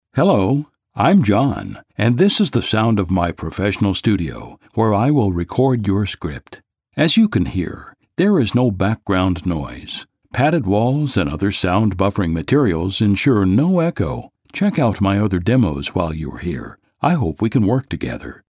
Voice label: studio sample

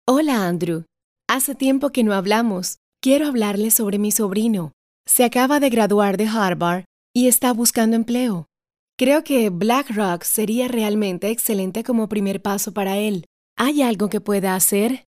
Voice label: FEMALE

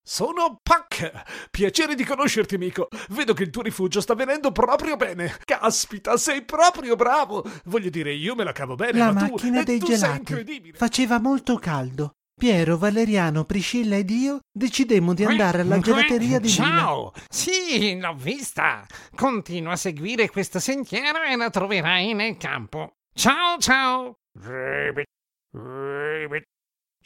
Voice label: cartoon child voice

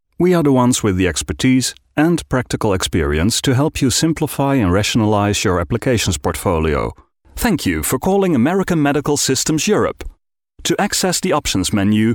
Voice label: English UK

